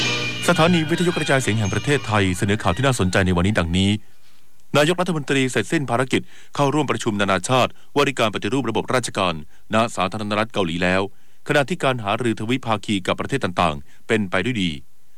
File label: radio news headlines